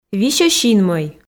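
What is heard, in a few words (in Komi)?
висьӧ синмӧй, Komi, female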